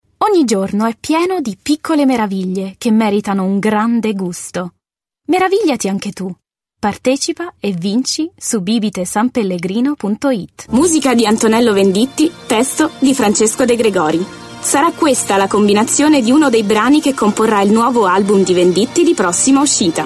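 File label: IT General, Italian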